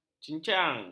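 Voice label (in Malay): "cincang", Malay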